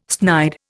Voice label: "snide", Indian